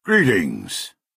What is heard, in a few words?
male hello; Redguard